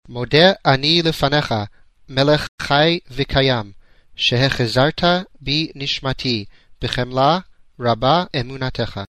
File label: sefardit